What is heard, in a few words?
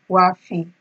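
"wafi", Nigerian Pidgin, female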